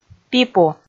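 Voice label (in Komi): "пипу", Komi, female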